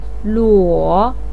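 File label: "luo", Mandarin pinyin, third tone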